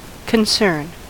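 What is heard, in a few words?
"concern", American English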